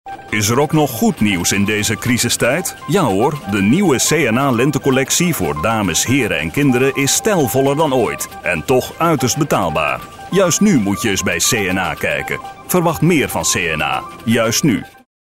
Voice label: medium sell news room